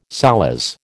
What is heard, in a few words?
"solace", American